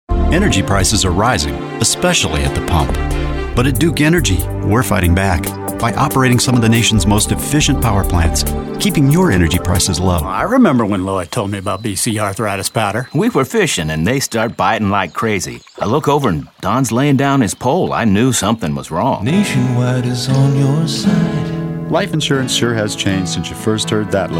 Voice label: General, commercial